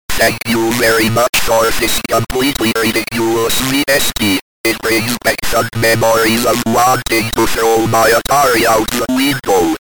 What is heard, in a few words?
atari talk